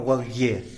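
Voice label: "walgie" type of mouse, Zapotec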